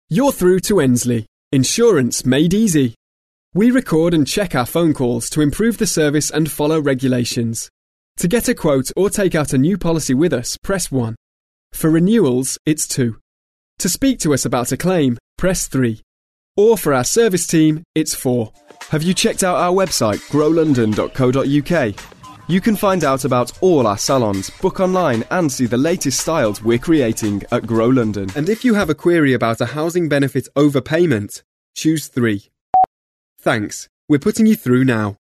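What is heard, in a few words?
UK, male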